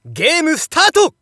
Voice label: Narration Game Start; Hanyou

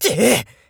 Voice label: nekketsu damage, voice

